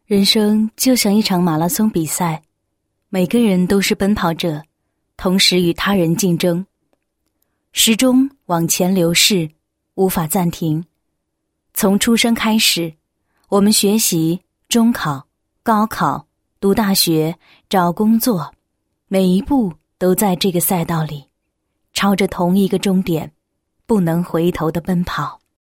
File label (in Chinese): 女, 旁白, 形象设计学校宣传旁白; 韩尚美